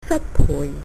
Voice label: excuse me, cantonese